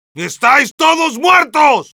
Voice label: taunts es

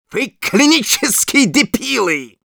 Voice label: Medic taunts, ru

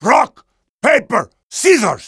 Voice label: Heavy taunt rps

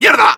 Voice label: negative vocalization, es

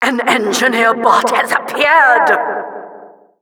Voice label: Announcer arrive

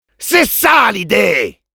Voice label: positive vocalization, fr; Demoman

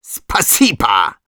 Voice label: thanks, ru; Medic

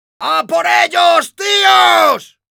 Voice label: battlecry, es; Demoman

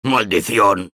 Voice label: Soldier negative vocalization, es

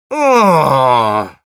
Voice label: negative vocalization, ru

